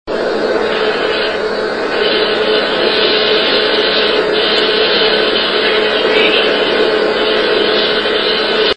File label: help me filtered; ghoststalkers